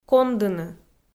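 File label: "кондыны" Komi, female; audio dict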